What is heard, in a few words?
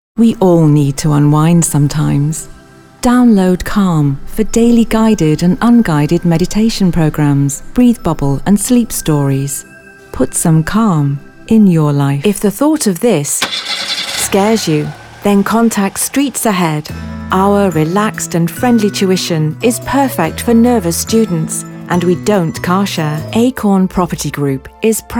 Commercial